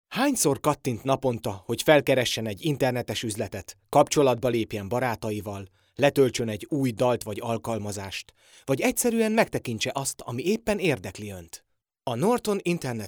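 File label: hungarian narration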